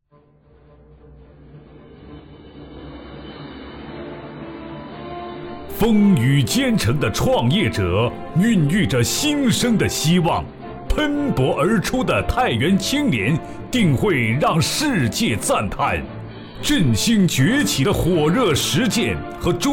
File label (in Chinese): 男 专题样音 浑厚深沉